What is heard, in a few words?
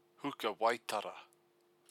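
"hukawaitara", Māori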